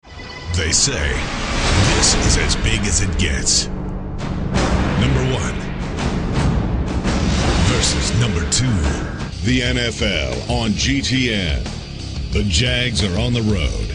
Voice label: Promo comp